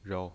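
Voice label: "rou", Mandarin Chinese pinyin voice, neutral tone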